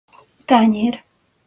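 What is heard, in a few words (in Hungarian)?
"tanyer" Hungarian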